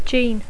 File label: "gene", English